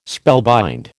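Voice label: "spellbind", American English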